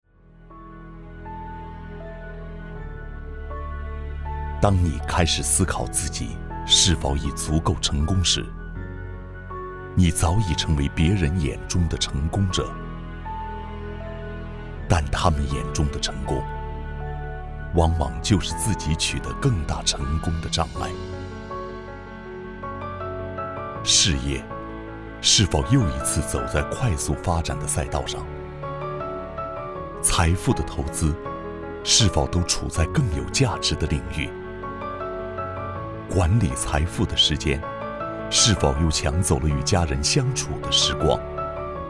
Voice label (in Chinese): 银行 宣传片; 中信银行